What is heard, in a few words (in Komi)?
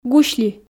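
"гусли", Komi, female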